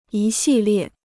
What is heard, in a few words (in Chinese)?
"一系列", Chinese